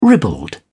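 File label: "ribald", British English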